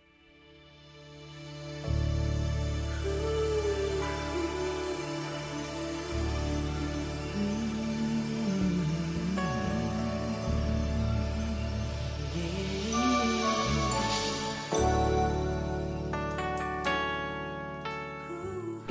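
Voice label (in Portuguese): Evangélica Aniversário de Sobrinho, female, telemensagens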